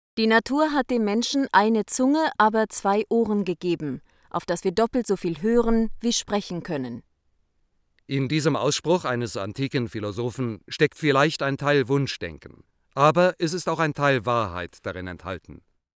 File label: Speech German; original; SQAM; codec demo